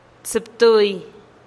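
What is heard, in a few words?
"tsïptui", Teotitlán del Valle Zapotec; once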